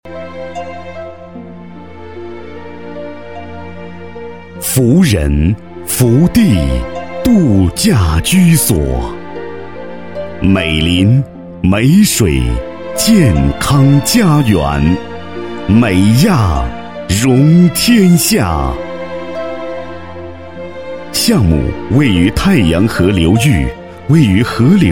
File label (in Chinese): 男 专题 大气解说, 国语男声配音, 浑厚; 美丽的地方